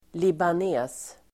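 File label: "libanes", Swedish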